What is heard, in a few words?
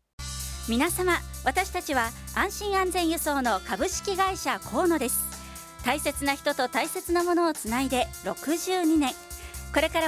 radio CM